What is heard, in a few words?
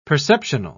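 "perceptional", British English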